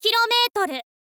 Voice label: "kilometer", info girl voice